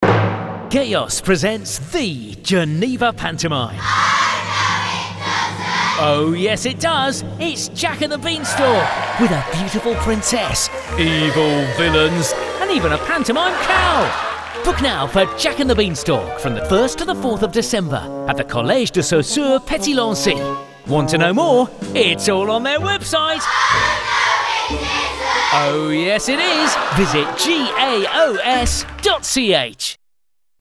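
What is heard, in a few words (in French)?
spot, spots radio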